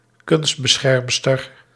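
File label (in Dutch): "kunstbeschermster", Dutch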